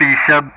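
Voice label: Speech Sound Russian; DCS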